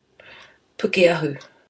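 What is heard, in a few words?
"pukeahu", Māori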